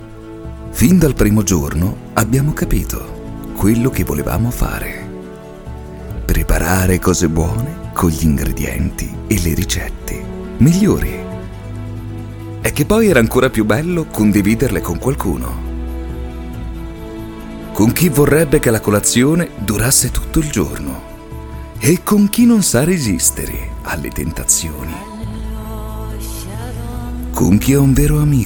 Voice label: warm calm voiceover